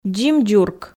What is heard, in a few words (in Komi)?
"дзим-дзурк", Komi